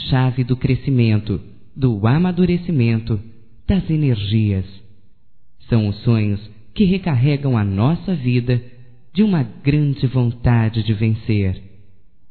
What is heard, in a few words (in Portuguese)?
ESPERANÇA(F), Telemensagens, fem; Amizades; Telemensagens 2017